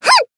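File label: Voice attack Japanese; leviachan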